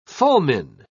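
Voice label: "fulmine", British English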